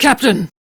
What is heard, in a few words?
Crew Hired, Female; Dialog; en; Warframe; RailjackCrew; Steel Meridian Female